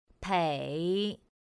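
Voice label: "pěi", Mandarin Chinese; pinyin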